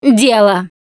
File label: taunt, russian, Survivor Voice Producer